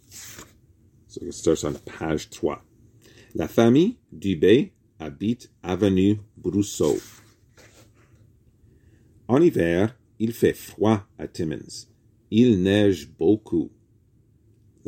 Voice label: reading french reading booklet; chez les dube